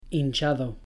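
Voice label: "hinchado", Spanish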